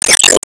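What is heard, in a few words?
Voice Emotion Call Name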